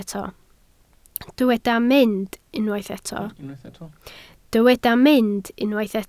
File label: "mynd", Welsh